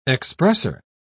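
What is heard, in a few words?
"expresser", British English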